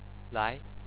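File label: "lai", Chinese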